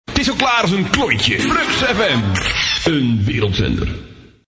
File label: Jingle; Flux Fm; Audio Fragmenten; Arkan Radio 2